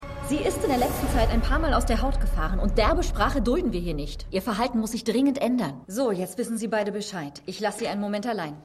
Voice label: Schulleiterin, Stimmproben; Palizzi; The Flash

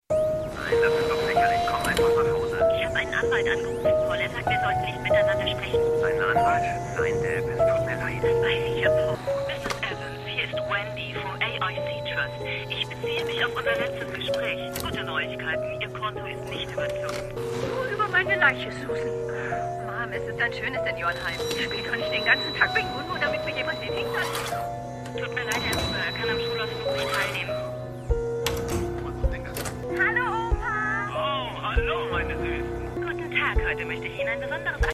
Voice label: Div Abgehoerte Stimmen

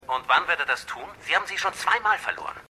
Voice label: Telefonstimme

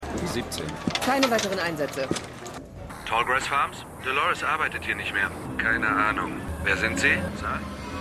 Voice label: Telefonstimme; Spieler; Spielleiterin; Goliath

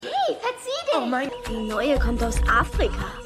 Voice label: Kleine Schuelerin; Girls Club

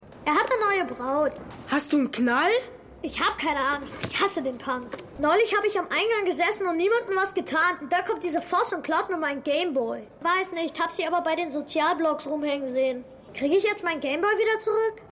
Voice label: Junge, Stimmproben

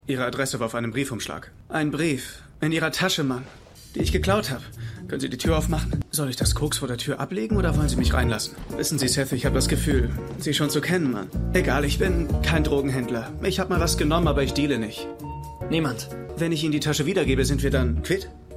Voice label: Junger Mann; For The People

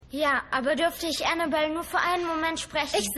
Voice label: Rescue Me

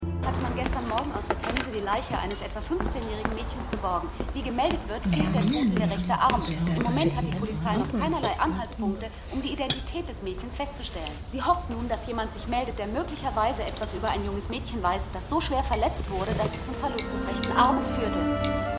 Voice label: Reporterin; Silent Witness

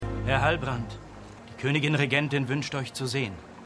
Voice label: Soldat, Stimmproben; DER HERR DER RINGE: DIE RINGE DER MACHT; The Lord of the Rings

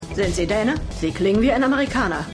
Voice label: Einreisebeamtin; Tetris

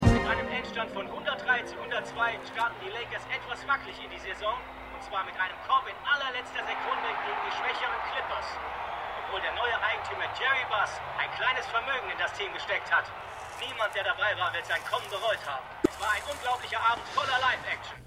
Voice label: TV Sportkommentator; Winning Time

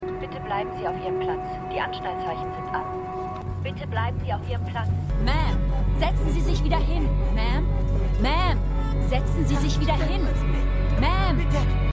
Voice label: Flugbegleiterin; AlaskaDaily

